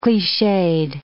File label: "cliched", English